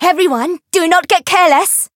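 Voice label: Voice encounter fear; komachi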